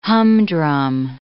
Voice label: "humdrum", English